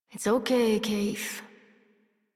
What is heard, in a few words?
Horse Calm Adult; Warframe; Duviri